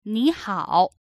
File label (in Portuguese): "oi" em mandarim